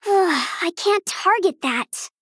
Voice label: Female Gnome ERROR